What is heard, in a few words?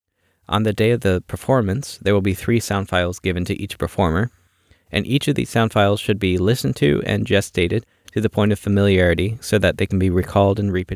narration